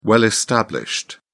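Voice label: "well established", British English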